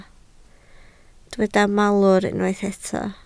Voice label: "malwr", Welsh